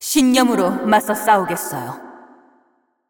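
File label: Korean, assist; VO; ko KR; Karma; bloom minigame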